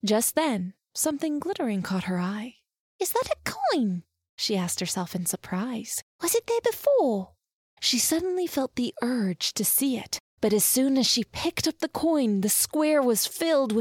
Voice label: english canadian audiobooks